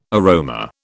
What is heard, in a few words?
"aroma", British